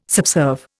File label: "subserve", Indian